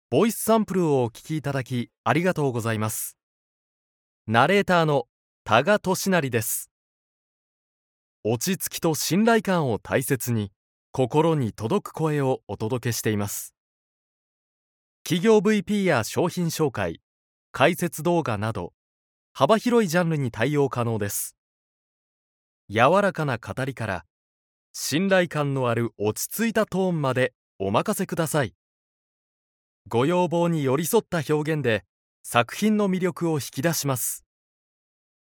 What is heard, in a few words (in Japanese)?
ボイスサンプル（BGMなし）